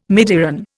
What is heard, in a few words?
"midiron", Indian